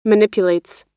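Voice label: "manipulates", US English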